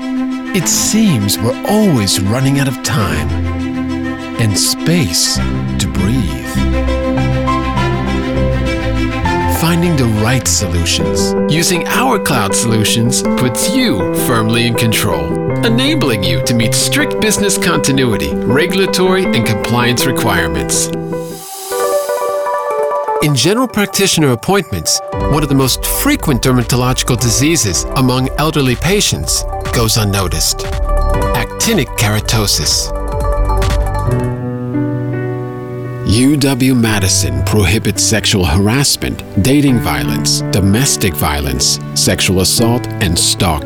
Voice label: Corporate

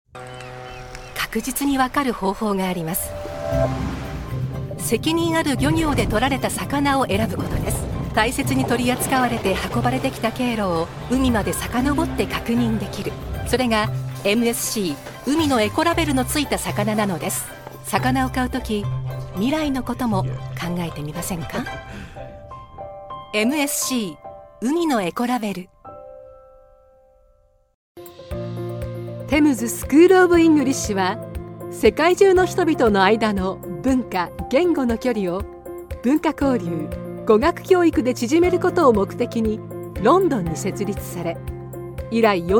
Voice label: Corporate Narration

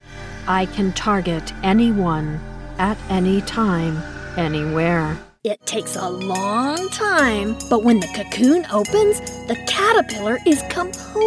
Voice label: Animation

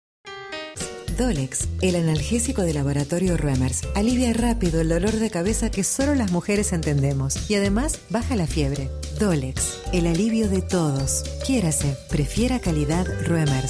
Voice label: Spanish VO Commercial

